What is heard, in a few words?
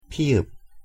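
"ㅍ", Korean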